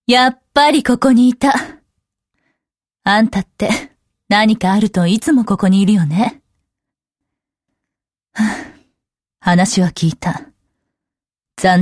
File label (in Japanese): f kakkoii; seikaku